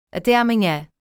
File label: Portuguese See you tomorrow; Greetings